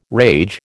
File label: "rage", American